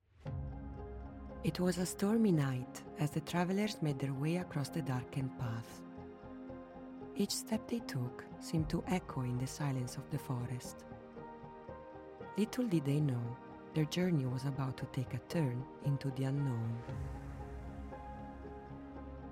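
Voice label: Italian, Accented English Audiobook; GoLocalise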